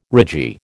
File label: "ragee", American